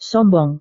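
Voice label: "sombong", Indonesian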